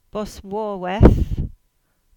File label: Cornish; Boswarva